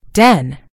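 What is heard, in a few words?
"den", American English; gcompris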